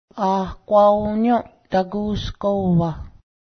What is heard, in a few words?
leopard, Cayuga; a:gwa:ǫ:nyǫ́ˀdagu:s gó:wah